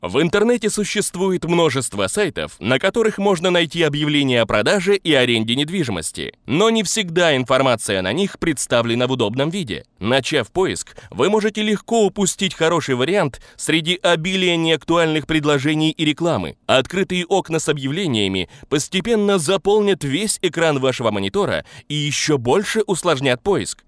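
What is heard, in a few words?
middle, Russian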